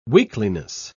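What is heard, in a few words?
"weakliness", British English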